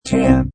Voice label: Robot Voice "0"